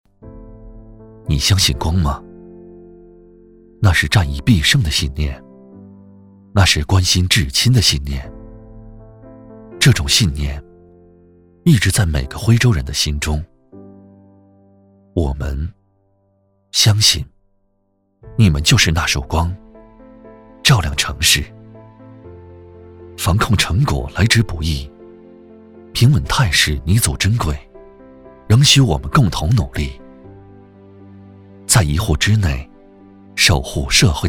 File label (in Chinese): 男 情感独白 一封信